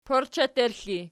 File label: "porcheterxli", Archi